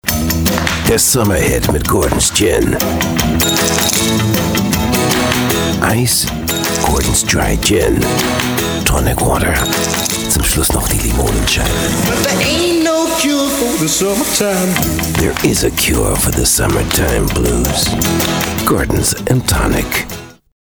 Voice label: Commercial; Gordons Gin